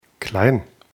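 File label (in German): "klein", German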